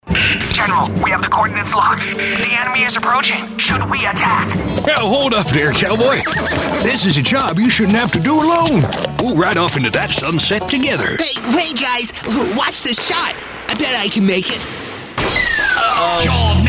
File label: Animation